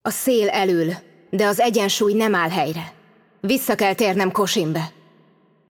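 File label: voice over, Hungarian; Game Outro; bloom minigame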